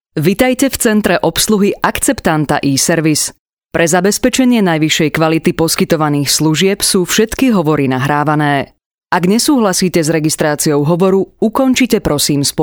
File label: eService Slovak